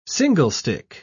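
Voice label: "singlestick", British English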